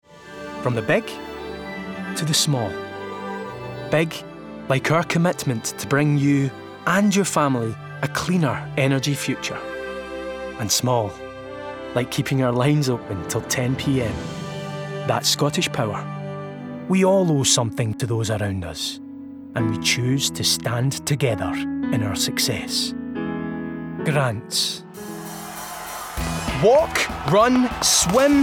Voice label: Comercial